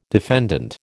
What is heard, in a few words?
"defendant", American